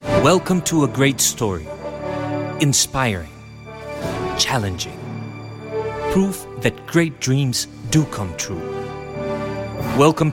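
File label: Spanish English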